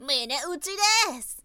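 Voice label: "mineutidesu", woman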